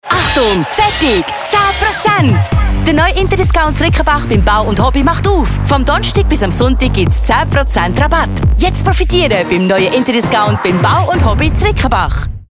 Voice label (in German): Radio Spot, sprecherin; Interdiscount; Covermedia